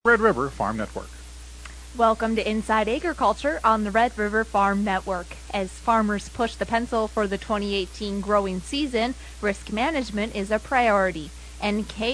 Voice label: air check; Syngenta NK